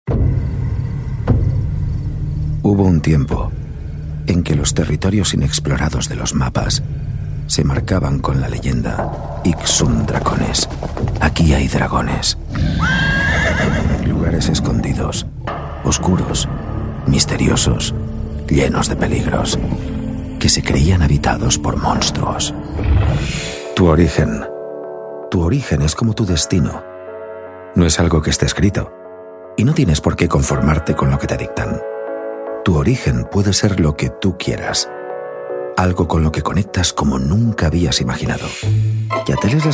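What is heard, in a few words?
spanish male spanish commercial, European Spanish Male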